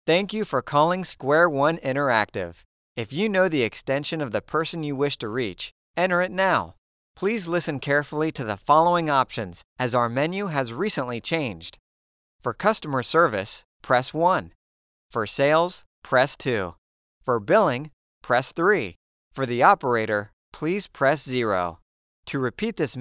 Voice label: IVR